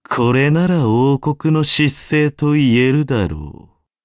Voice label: level up, ja; Cookie Run